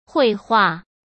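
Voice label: "绘画", Mandarin Chinese; chinese-flashcards; hsk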